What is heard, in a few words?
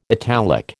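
"italic", American English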